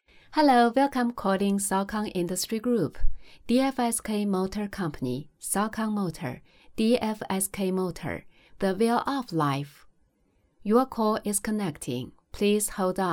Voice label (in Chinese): 外籍男-彩铃; sokon